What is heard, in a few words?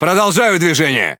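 Russian Speech Pilots Fighter Airborne; World Of Warships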